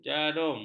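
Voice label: "jarum", Malay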